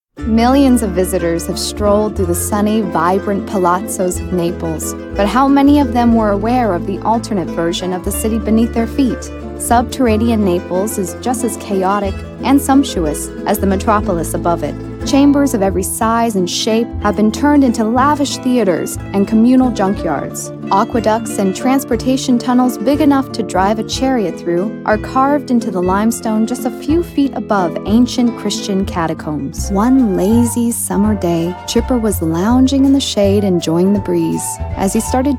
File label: Narrative Voice